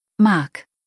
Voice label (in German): "Marc", deutsch, jungennamen